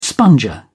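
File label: "sponger", British English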